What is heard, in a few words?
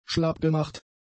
"schlappgemacht", German; adjectives